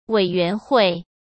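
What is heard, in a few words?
"委员会", Mandarin Chinese; chinese-flashcards; hsk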